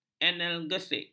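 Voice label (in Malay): "analgesik", Malay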